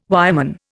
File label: "wireman", Indian